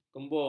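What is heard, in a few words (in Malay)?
"kembung", Malay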